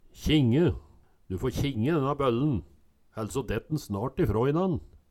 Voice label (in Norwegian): kjinge, Numedalsmål